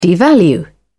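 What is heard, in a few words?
"devalue", British English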